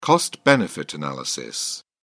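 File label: "cost benefit analysis", British English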